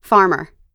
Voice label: "farmer", us